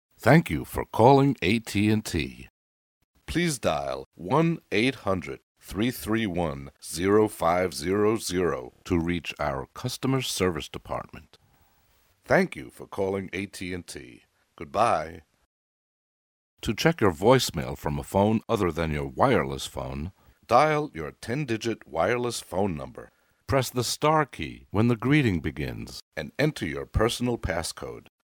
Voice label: phone greeting